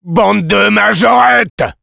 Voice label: Sniper taunts, fr; "Bande de majorettes !"

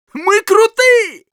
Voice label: positive vocalization, ru; Мы круты!; Scout

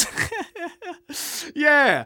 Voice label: Scout positive vocalization

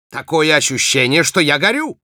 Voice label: auto on fire ru; Spy

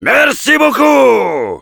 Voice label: thanks, fr; Heavy; "Merci beaucoup !"

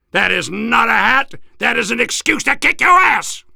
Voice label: Soldier hat taunts

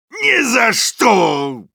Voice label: soldier negative vocalization, ru; «Ни за что!»

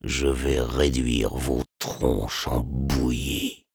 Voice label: sniper taunts, fr

